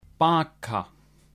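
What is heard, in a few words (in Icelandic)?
"baka", Icelandic; matarhefti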